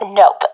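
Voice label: negative, professional female; vo; Counter Strike Model Pack; CS:GO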